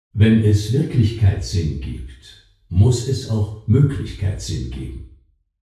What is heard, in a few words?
classroom male